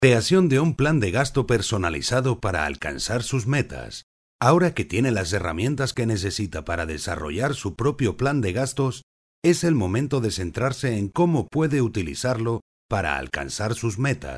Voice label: elearning